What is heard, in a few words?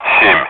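Speech, Russian, air traffic control; Digits; DCS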